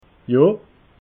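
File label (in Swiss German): "ja", Alsatian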